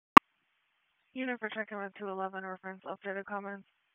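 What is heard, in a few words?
Police Northeast District Dispatch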